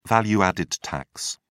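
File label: "value added tax", British English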